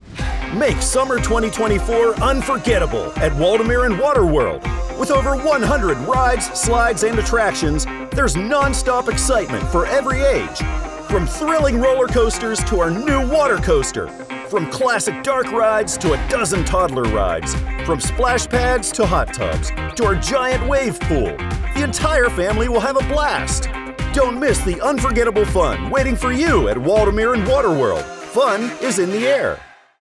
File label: Radio Ad; 2024 Branding Unforgettable